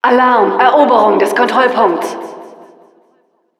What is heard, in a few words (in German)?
Announcer control point warning, de